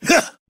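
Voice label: "huh", vocal sample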